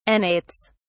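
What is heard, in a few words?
"annates", British English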